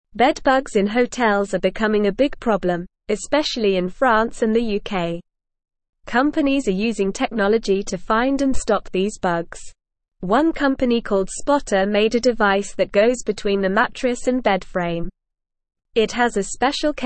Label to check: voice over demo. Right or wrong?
Wrong